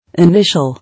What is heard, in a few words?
"Initial", English